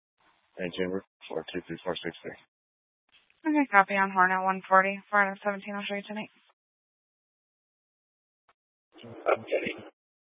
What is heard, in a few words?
Mesa PD Dispatch